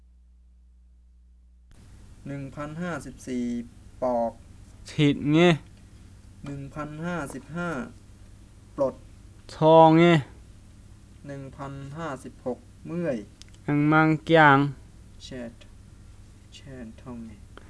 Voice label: Bisu or Misu Wordlist

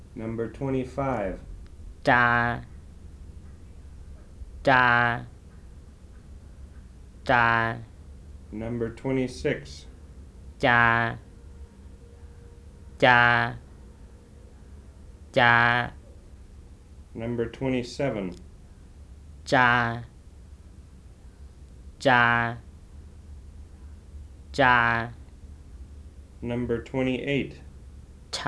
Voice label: WHITE MIAO Nonsense Contrast

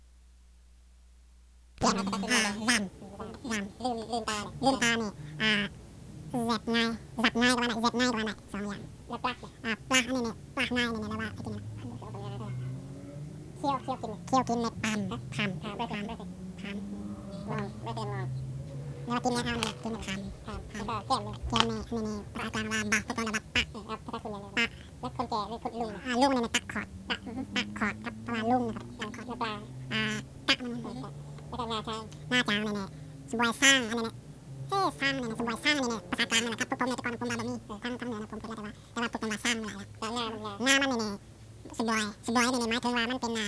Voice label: Wa Word list

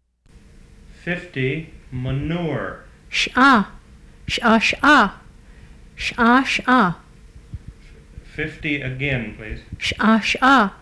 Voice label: Yuchi

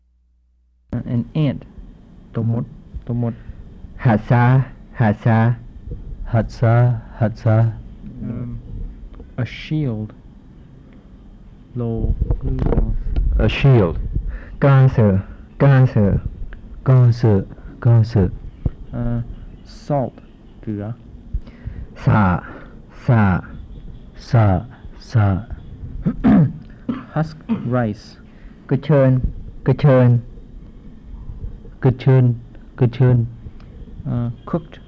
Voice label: Phunoi

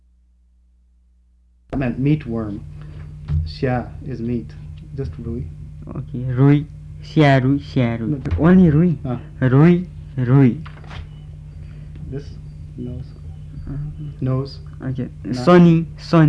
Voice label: Kham Comparative Word list

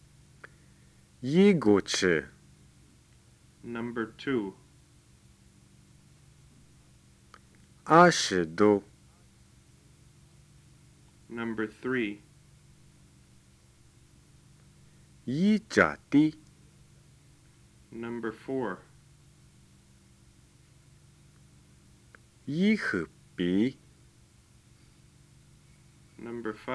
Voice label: Lisu

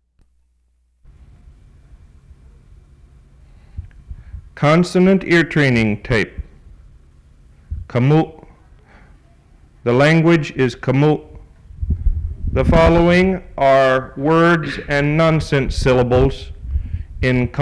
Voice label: Khmu

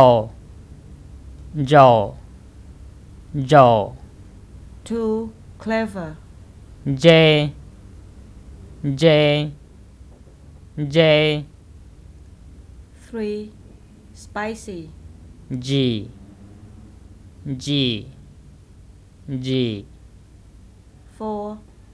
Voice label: White Miao Nan Province

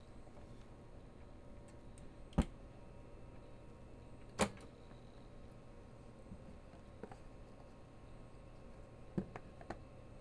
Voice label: Sindhi

